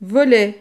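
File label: "volet", French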